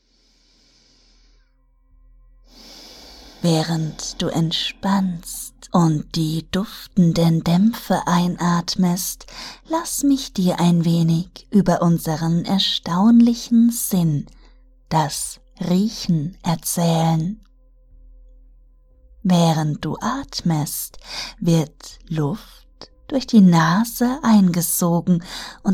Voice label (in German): erotische Hypnose 3D ASMR; Ohrgasmus; Riechen